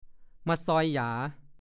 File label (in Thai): "ม่าซอยหยา", ภาษาโคราช; โต๊ะหรือเขี้ยงสำหรับซอยใบยาสูบ